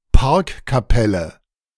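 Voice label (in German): "Parkkapelle", German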